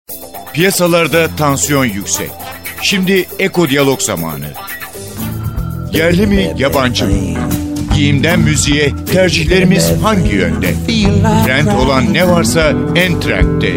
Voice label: turkish male